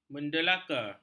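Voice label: "mendeleka", Malay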